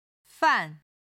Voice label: "fan4", chinese